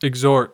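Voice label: "exhort", American English; pronunciations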